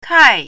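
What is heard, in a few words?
"kai4", Mandarin Chinese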